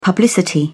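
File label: "publicity", British English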